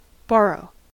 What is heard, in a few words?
"borrow", us